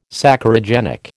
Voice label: "Saccharogenic", American

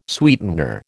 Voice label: "sweetener", American

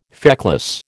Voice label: "feckless", American

